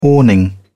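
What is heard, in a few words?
"awning", British English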